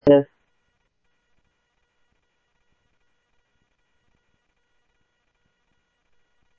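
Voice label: Sheriff East County, scanner audio trunk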